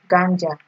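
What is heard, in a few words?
"ganja", Nigerian Pidgin, female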